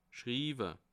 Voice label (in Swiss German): "schriwe", alsace